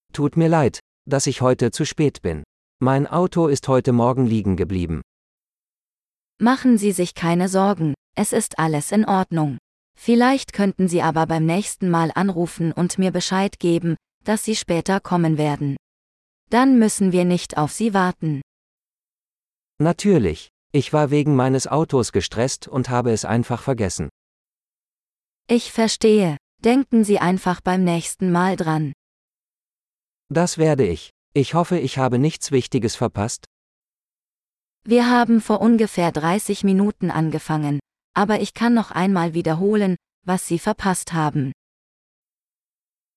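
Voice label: Apologizing - Dialogue, German; de-en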